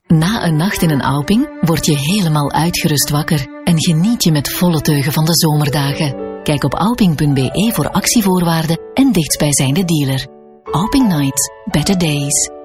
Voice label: Commercial; Auping